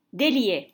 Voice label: "Déliai", French

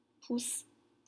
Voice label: "pouce", French; thumb

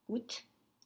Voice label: "goutte", French; drop

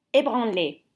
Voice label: "Ébranlé", French

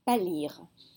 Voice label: "pâlirent", French

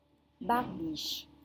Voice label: "barbiche", French

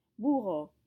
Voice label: "bourreau", French

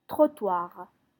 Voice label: "trottoir", French

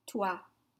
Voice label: "toit", French

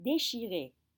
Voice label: "déchiré", French

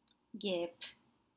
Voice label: "guepes", French; wasps

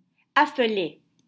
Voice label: "Affolé", French

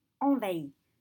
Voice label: "envahit", French

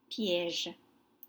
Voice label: "piege", French; trap

